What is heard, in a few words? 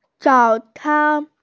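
"zao3can1", Mandarin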